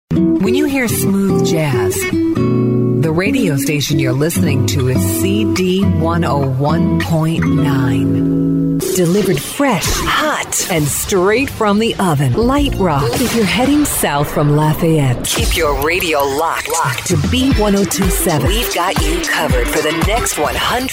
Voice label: IMAGING